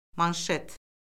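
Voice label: "manşet", Azerbaijani; Orfoqrafiya Lüğəti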